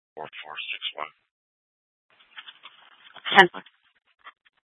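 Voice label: police dispatch, Scottsdale PD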